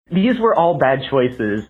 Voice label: voicemail these were all bad choices

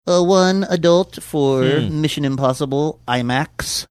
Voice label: saying one adult for imax funny; mission impossible

